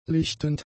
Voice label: "lichtend", German; adjectives

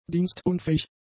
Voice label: "dienstunfähig", german; adjectives

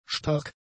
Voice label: "stark", German; adjectives

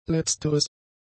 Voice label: "letzteres", German; adjectives

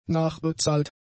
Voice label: "nachbezahlt", German; adjectives

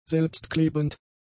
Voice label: "selbstklebend", German; adjectives

